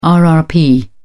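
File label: "rrp", British English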